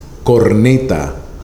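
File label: "CORNETA", Spanish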